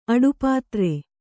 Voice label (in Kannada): "ಅಡುಪಾತ್ರೆ", Kannada